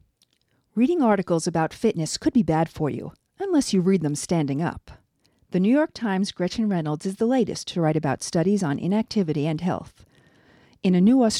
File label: News Radio; NBC News Radio